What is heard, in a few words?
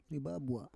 "ribabua", Roon